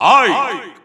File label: Russian Announcer; Ike; SSBU